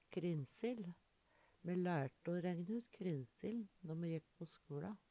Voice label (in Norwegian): "krinsil", Numedalsmål; Handverk (metall, tre, lær)